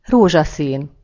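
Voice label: pink, Serbian; gcompris-data; colors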